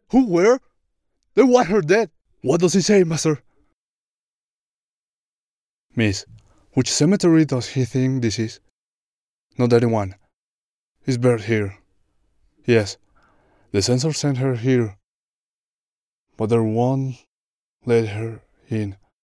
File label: voice range 25 45